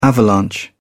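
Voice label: "avalanche", English, British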